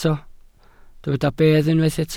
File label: "bedd", Welsh